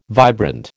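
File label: "vibrant", American English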